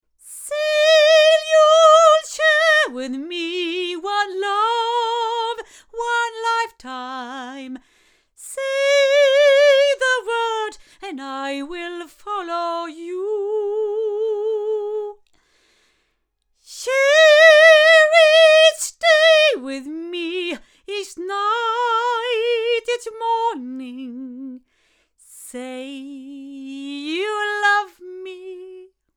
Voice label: Avant CK-1 cardioid female